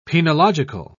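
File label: "penological", English, British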